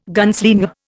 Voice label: "gunslinger", Indian